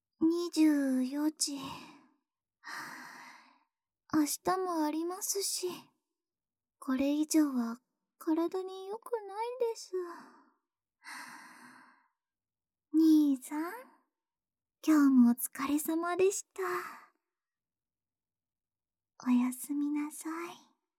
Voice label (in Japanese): 時報ボイス, 24時